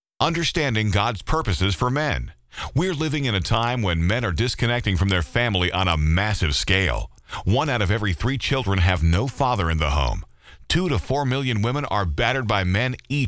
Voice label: Voiceover Only; LighthouseMen; Mix